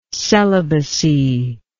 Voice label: "celibatic", English